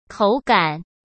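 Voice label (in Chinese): "口感", Mandarin Chinese; hsk; chinese-flashcards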